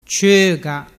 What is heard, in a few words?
"cioga", dialettu